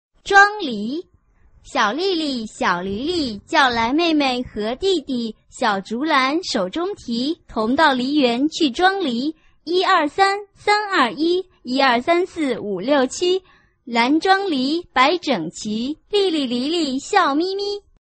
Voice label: chinese tongue twister